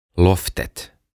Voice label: "Loftet" "Ylinen"